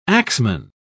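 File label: "axeman", British English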